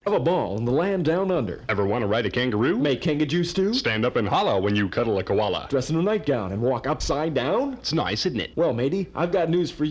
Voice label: Radio Spot: R And R In Sydney, Australia - Commercial With R And R Advisory And Song Request; Mono